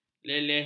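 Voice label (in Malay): "leleh", Malay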